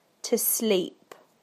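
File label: "to sleep", British English; PictureDictionary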